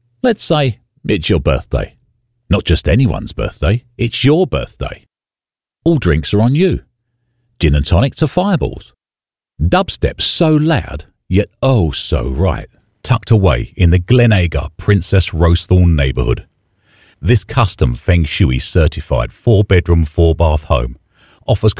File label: male, English British